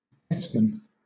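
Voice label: "desman", British English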